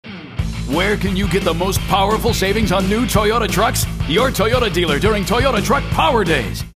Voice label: hard sell